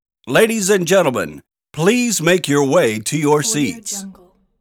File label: announcer please make your way to your seats; applehillstudios